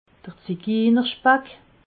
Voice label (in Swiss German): "ziginerspack", alsace